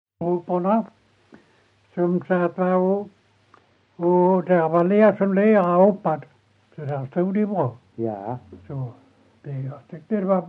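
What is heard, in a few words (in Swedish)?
Ekeby Skåne, dialekter; Folkminnen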